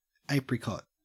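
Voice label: "apricot", Australian English; pronunciations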